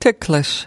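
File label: "ticklish", US English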